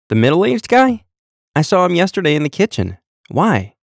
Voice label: Mental Health Awareness Professional Male; NIIT; Global Mental Health